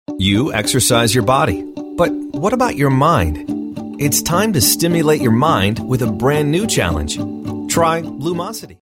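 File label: American English, male, explainer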